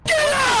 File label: get out very loud